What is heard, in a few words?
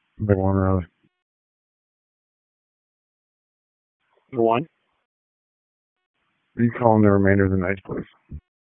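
Police District Dispatch, p25; Raleigh Police Southeast District Disp; wake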